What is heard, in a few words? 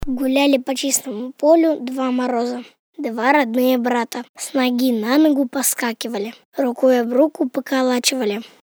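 Russian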